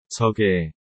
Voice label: "저게", korean

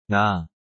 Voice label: "나", korean

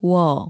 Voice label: "워", korean; vowel

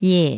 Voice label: "예", korean; vowel

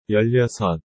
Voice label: "열여섯", korean

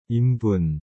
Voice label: "인분", korean

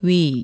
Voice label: "위", korean; vowel

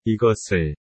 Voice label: "이것을", korean

